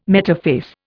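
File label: "metaphase", English, Indian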